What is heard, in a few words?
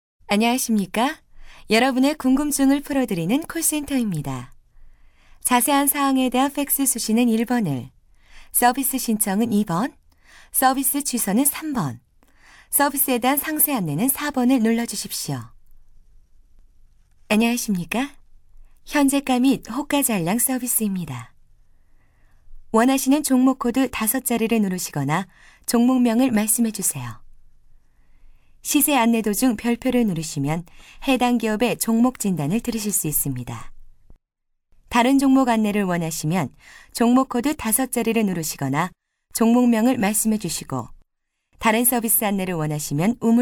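Korean, female; 여성 (Female); ARS; 광고; 나레이션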